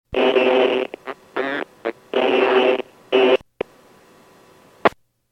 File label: PAGING